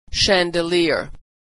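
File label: "chandelier", American English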